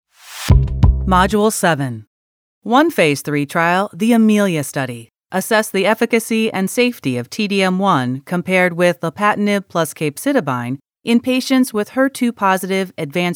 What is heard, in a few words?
eLearning Explainer